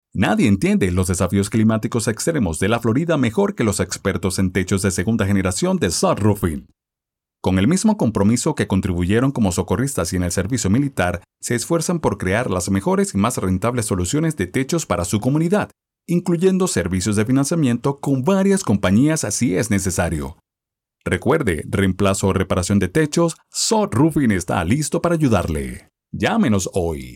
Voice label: Spanish (LA), Male

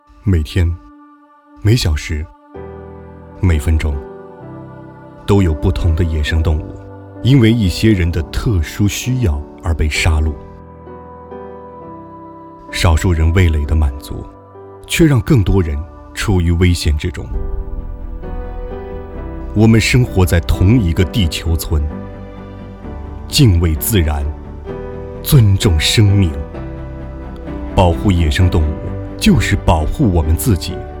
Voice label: Chinese, Male

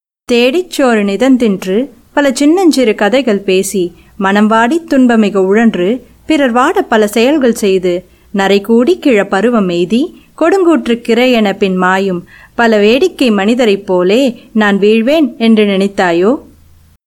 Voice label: Tamil, Female

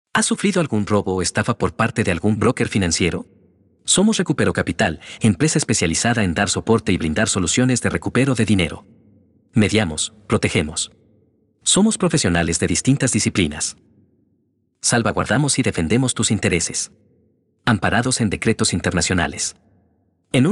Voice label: Spanish (LA), Male

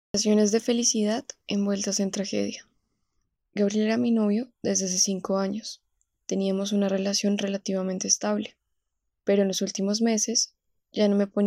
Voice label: Spanish (LA), Female

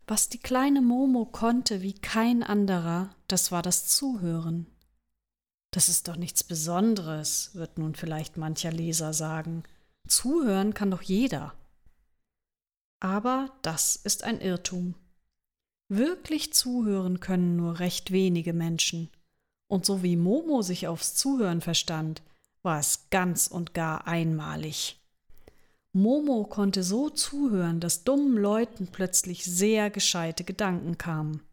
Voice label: German, Female

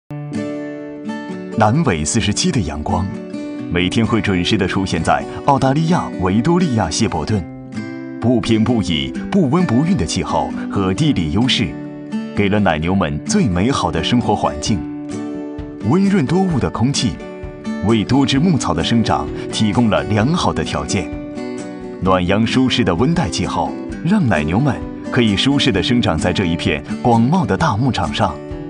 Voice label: Chinese, Male

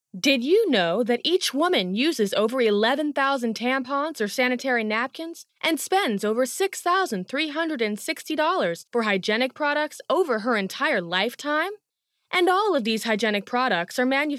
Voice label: English (US), Female

